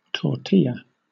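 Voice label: "tortilla", British English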